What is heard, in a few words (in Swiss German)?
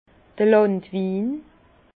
"landwin", Bas Rhin; vin; vin du pays